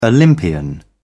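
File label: "olympian", British English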